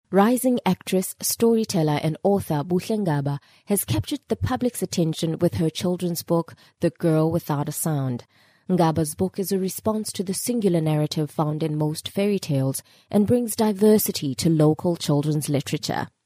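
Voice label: South African, male, voiceovers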